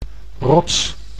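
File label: "rots", Dutch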